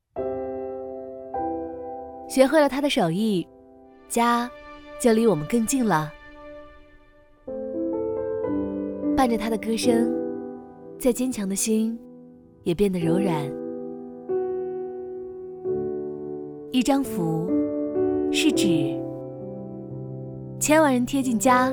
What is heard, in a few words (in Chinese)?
女,独白,暖心; 快手央视广告